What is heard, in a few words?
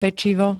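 "pečivo", Slovak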